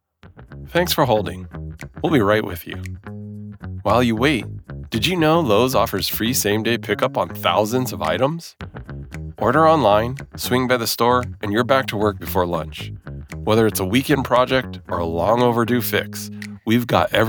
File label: On Hold; Lowes